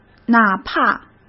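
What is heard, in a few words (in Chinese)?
"哪怕", Mandarin Chinese; chinese flashcards; hsk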